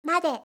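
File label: "made", Japanese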